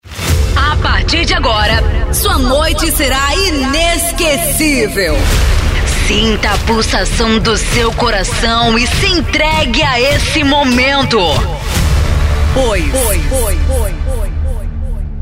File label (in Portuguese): locutor